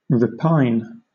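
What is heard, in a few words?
"repine", British English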